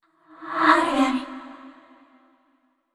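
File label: neutral, voice; yamiko aragami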